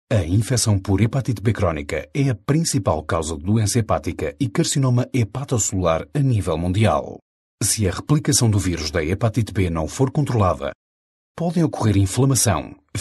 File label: portugese portugal m